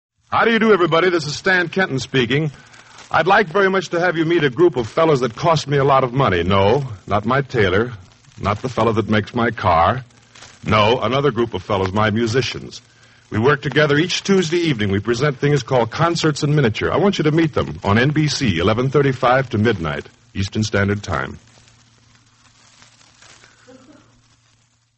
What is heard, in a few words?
promos for concert in miniature